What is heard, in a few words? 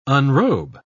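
"unrobe", English, British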